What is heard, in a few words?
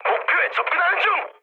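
Korean near waypoint mood high, speech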